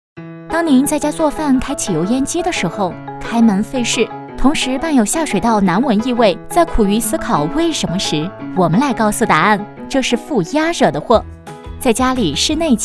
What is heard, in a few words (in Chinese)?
轻松 通风恒压机; 乾佳通风恒压机飞碟说